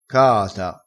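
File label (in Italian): "caata", vocabolario dialettale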